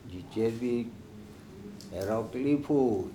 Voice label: jicErbi ErOpili pHu it was raining non stop, Andamanese